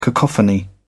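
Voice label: "cacophony", British English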